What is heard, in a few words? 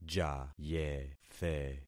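"jayethe", concatenated syllables; Affixing experiment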